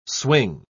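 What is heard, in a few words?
"swing", English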